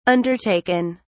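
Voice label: "undertaken", British English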